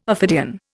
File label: "ophidian", Indian